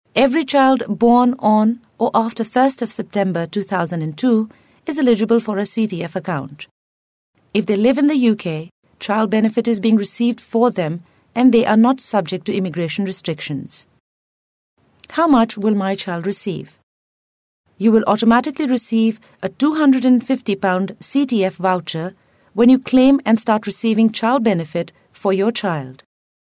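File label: Indian English F Narration; accent of india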